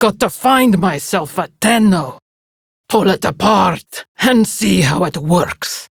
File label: Patrol Prime Grineer Female; Tau; Battle Barks